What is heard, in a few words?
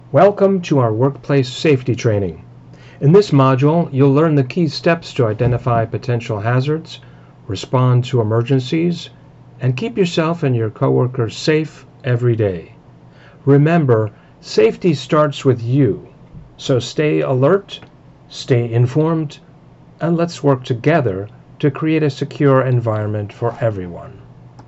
Corporate Narration Internal Training Videos, voice over narration